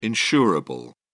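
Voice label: "insurable", British English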